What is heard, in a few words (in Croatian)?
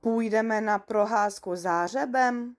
češki; POP UP Zagreb